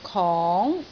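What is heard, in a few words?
"kong2", Cantonese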